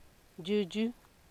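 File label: "juju", Nigerian Pidgin, female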